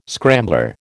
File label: "scrambler", American